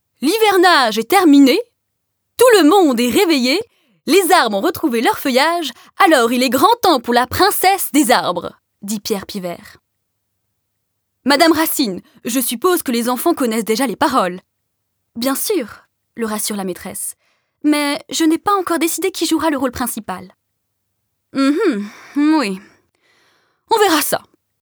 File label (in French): Livre audio enfants; Pierre Pivert prof